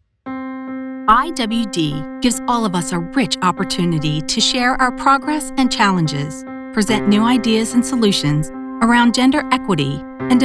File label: English American CORPORATE